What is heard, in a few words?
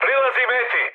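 Serbian near waypoint mood high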